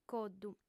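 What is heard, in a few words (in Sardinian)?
"coddu", female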